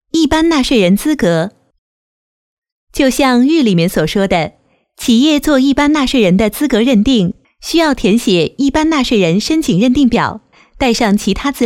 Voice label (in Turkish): Cince Kadin Mandarin Cin Simplified; pekin seslendirme